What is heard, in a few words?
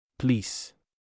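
"please", Singapore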